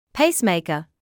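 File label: "pacemaker", British English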